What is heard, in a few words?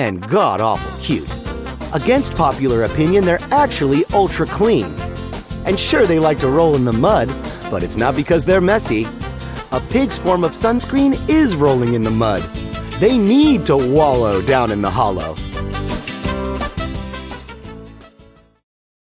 documentary pet pigs